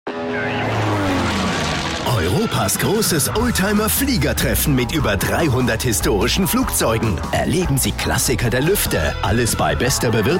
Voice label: Oldtimer Hahnweide, radiowerbung; radiowerbung event veranstaltung

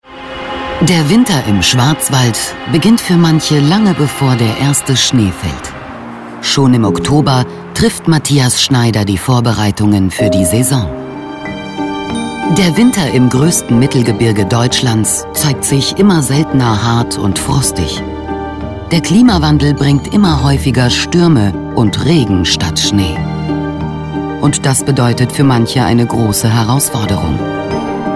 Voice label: warme Frauenstimme, Sprecherin

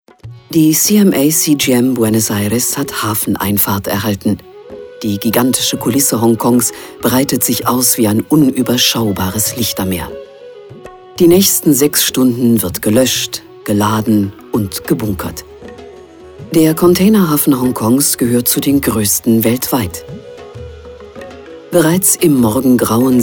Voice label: Doku; weibliche sprecherin fuer voice-over

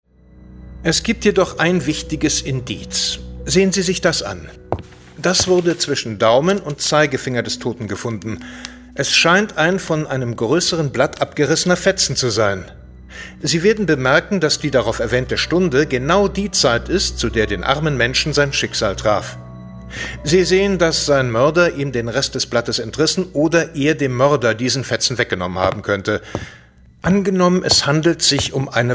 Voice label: Hoerspiel; Inspektor Forster II

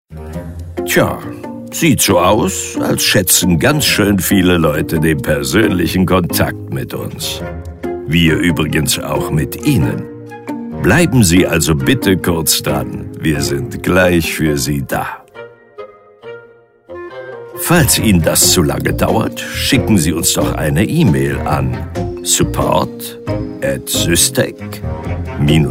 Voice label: Computer Warteschleife

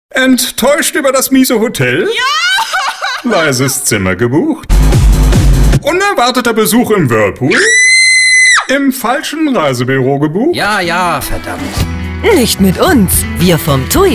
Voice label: Funkspot; TUI Achern

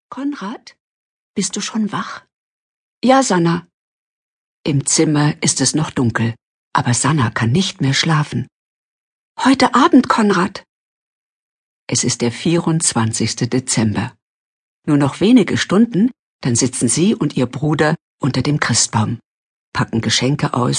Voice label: Hörbuch

